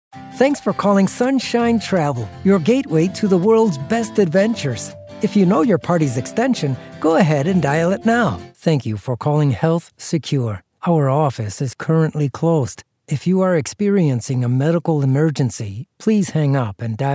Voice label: ivr